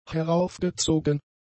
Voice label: "heraufgezogen", German; adjectives